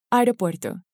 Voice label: "aeropuerto", Spanish